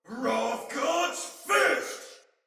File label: Attack Heavy Soldier Male; Battle; Barks; Dialog; Sinecure Soldier Male B